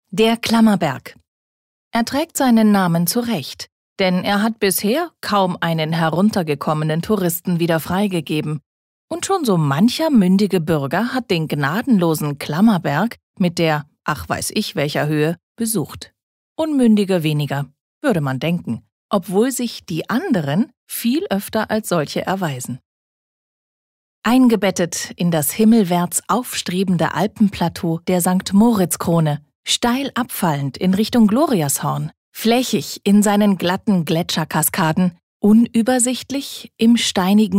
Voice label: Kommentar Neutral

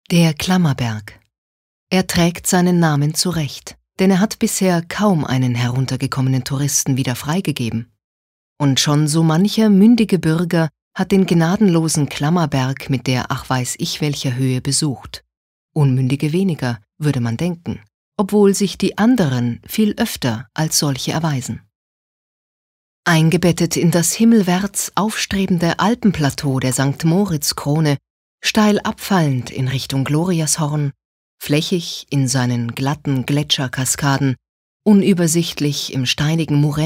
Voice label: Kommentar Neutral

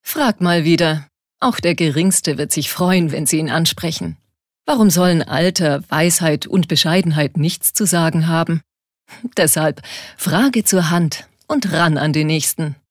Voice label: Werbung Elitaer

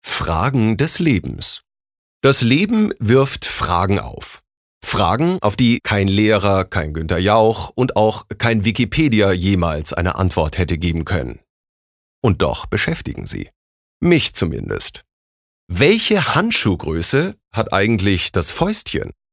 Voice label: Schauspiel, Variabel

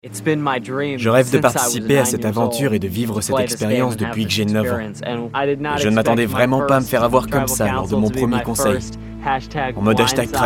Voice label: FR Dynamisch; Fremdtake

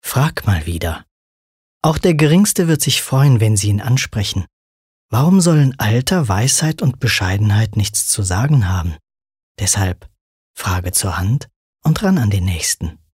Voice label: Werbung, Sanft

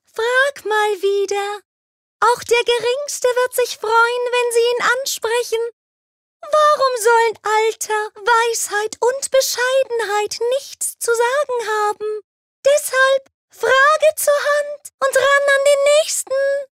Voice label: Charge Comic

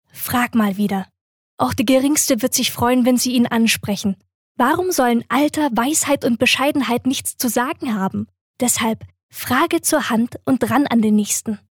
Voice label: Werbung Sanft

